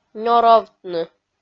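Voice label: "нёровтны", Komi, female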